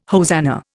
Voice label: "hosanna", Indian

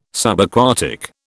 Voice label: "Subaquatic", British

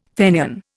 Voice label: "Fenian", Indian

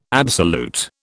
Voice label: "absolute", British

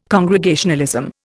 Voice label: "Congregationalism", Indian

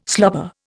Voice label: "slobber", Indian

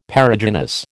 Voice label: "Perigynous", American

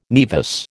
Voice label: "naevus", American

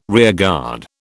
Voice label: "rearguard", British

